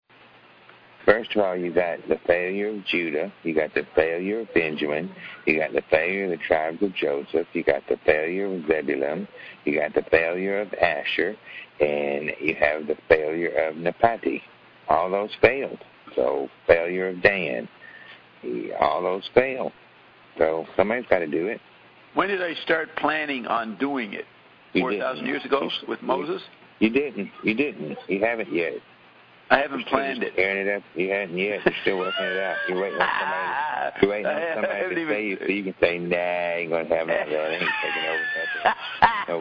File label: rant